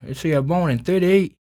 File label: SPOKEN, Blues Soul, TALK